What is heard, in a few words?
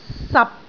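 "sap6", Cantonese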